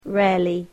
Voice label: "rarely", English

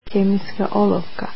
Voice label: pen, croatian

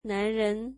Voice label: "man", mandarin

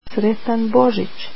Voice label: Merry Christmas, croatian

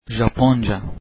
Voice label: "Japanese", Turkish

